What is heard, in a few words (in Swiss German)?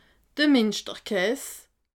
"minschterkaas", alsace